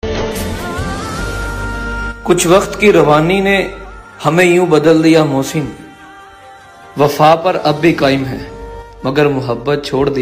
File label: Heart Touching Shayari Ringtone